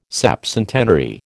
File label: "septcentenary", American